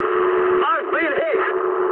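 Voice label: aircraft damaged mood high, speech English; War Thunder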